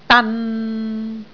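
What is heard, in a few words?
"dan6", Cantonese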